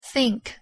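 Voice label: "think", English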